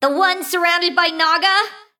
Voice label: Female Mecha Gnome